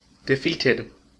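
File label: "defeated", US English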